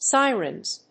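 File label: "sirens", English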